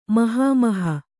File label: "ಮಹಾಮೋಹ", Kannada